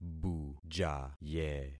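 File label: "bujaye", concatenated syllables; Affixing experiment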